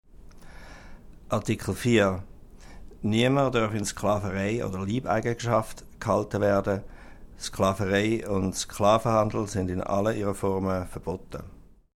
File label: Swiss